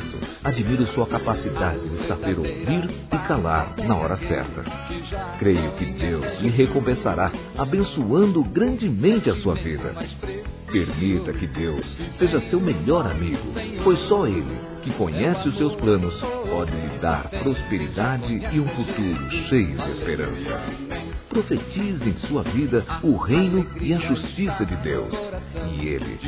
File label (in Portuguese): AMIZADE GERAL, Telemensagens, Gospel, masc